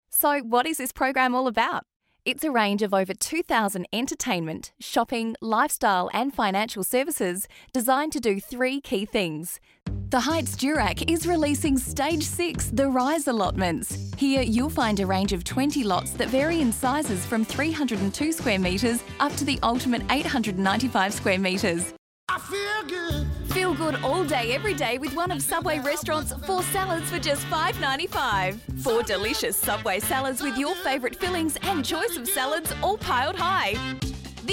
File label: corporate